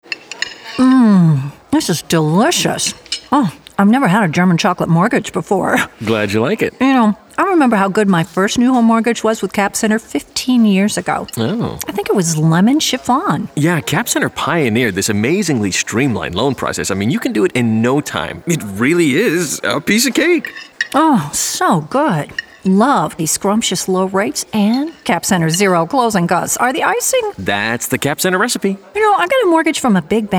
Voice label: conversational; Cap Center Mortgage